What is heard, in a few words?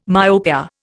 "myopia", Indian